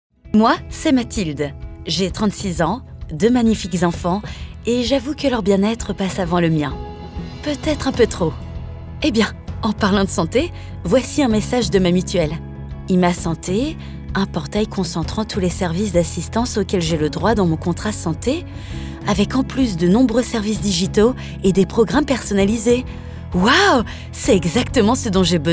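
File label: French, female, corporate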